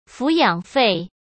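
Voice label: "抚养费", Mandarin Chinese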